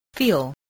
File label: "feel", spelling